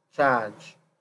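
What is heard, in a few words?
"charge", Nigerian Pidgin, male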